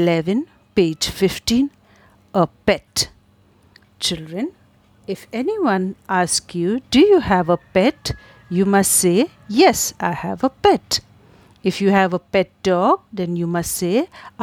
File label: Conversation